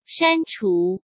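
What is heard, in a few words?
"删除", Mandarin; chinese-flashcards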